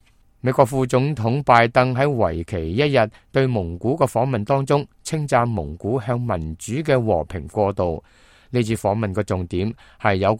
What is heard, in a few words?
cantonese, news